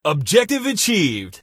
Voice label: objective achieved, announcer male